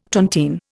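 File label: "tony", Indian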